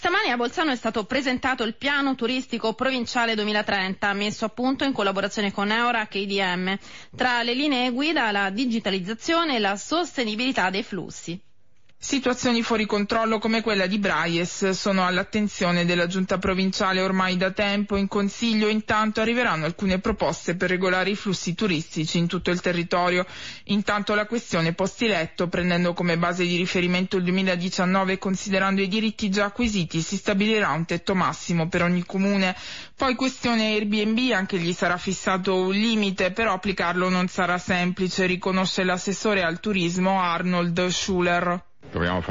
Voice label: Radio; Rai Südtirol; Presentato il piano turistico provinciale